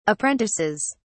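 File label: "apprentices", British English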